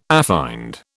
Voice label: "affined", British